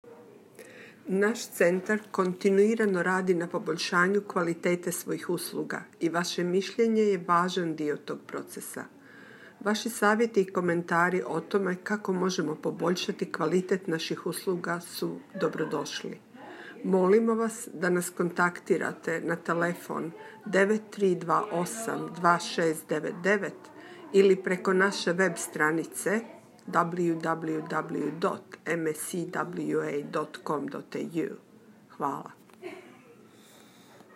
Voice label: Feedback Serbian